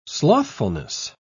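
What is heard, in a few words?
"slothfulness", British English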